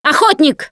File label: warn hunter, russian, Survivor Voice Producer